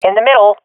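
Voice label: swat female; Counter Strike; CS:GO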